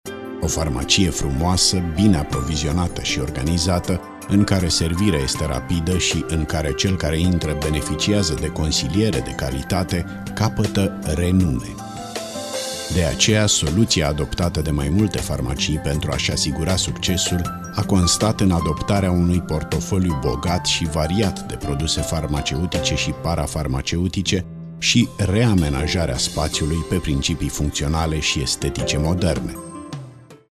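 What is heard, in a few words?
Romanian, male, Narration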